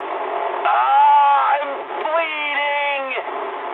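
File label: damaged pilot mood medium, speech English; War Thunder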